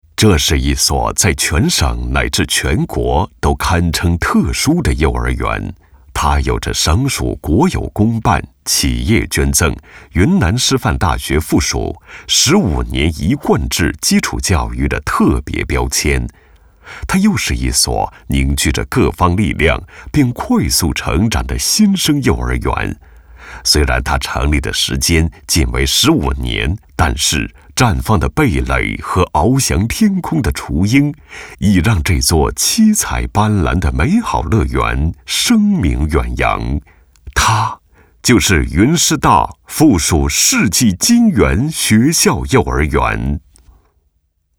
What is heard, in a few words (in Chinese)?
幼儿园宣传片; 师大附属世纪金源幼儿园